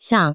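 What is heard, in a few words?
"xiang", Taiwan Mandarin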